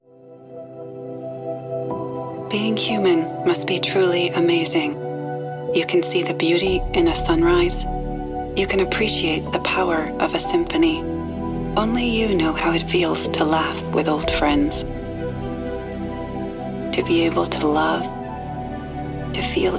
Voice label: promo